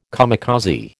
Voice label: "kamikaze", American